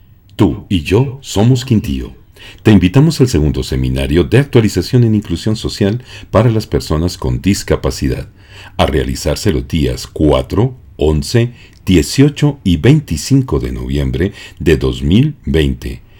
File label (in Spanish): Pieza publicitaria; PARTICIPACION SOCIAL